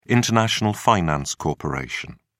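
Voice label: "international finance corporation", British English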